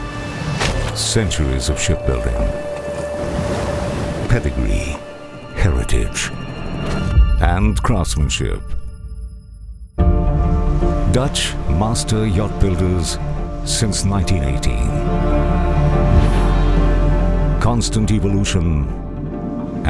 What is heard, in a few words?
english british corporate videos